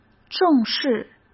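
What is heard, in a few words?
"重视", Mandarin Chinese; hsk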